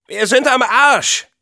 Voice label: negative vocalization, de; Scout; "Wir sind am Arsch!"

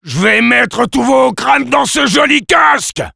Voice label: sniper taunts, fr

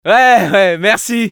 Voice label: thanks, fr; Scout

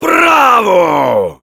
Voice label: good job, fr; Heavy

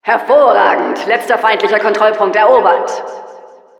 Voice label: success captured final control, de; Announcer

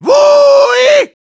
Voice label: engineer cheers, de

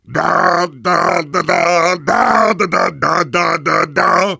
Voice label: Heavy cheers

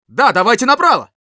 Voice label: head right, ru; "Да, давайте направо!"

